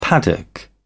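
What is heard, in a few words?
"paddock", British English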